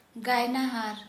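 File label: Santali, female, singer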